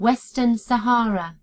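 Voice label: "western sahara", British English; gcompris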